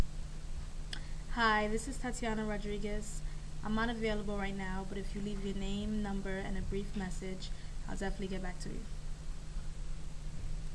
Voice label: voicemail